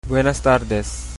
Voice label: good evening, chabacano